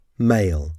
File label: male